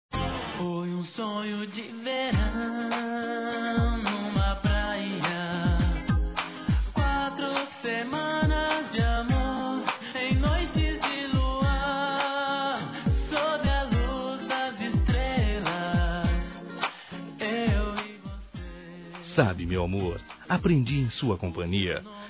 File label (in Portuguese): ANIV. ROMANTICO M